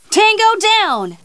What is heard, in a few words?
FEMALE ENEMY DOWN; combatarms2